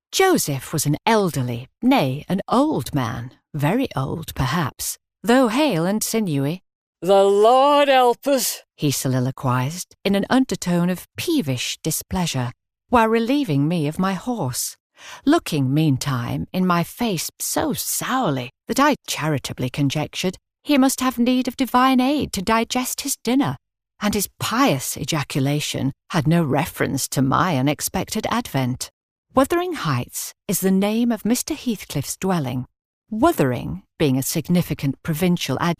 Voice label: Audiobook